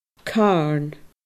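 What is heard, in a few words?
"carn", Scottish Gaelic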